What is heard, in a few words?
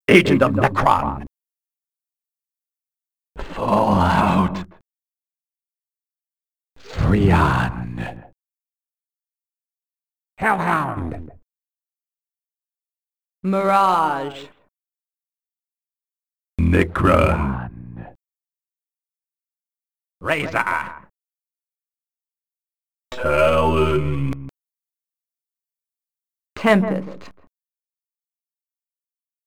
Announcer, Voices